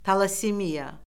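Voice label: "talassemiya", Azerbaijani; Orfoqrafiya Lüğəti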